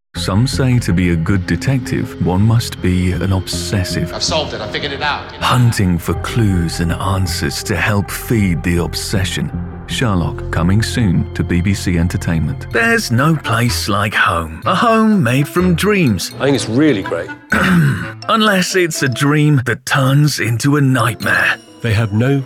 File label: tv promo